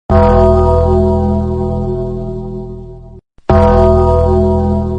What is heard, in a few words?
hey sound notification